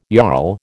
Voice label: "jarl", American